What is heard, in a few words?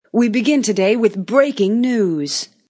News Anchor Female Breaking News Intro; Talking Sound Effects